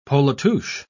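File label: "polatouche", British English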